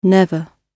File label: "never", English